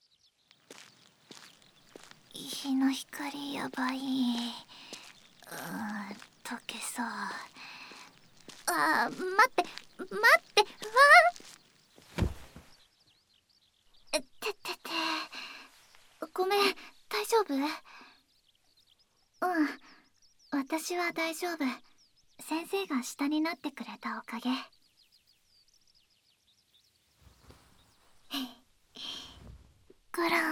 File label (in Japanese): 添い寝, ASMR; おまわりさんこいつです